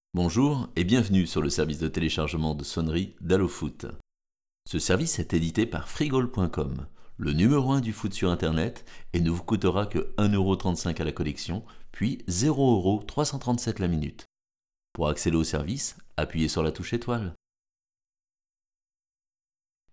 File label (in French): publicité; allo foot